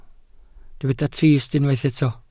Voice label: "trist", Welsh